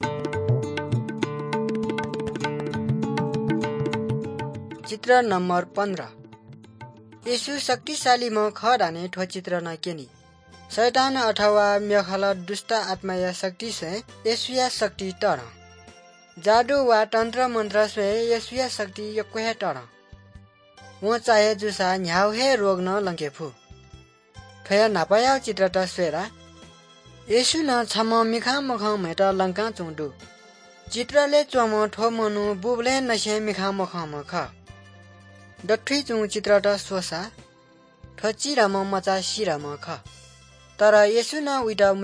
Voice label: good news newari balami